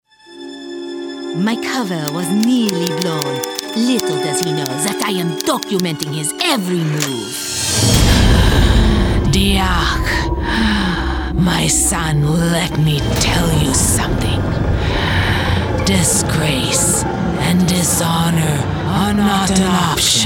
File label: Toon